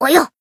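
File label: attack, Japanese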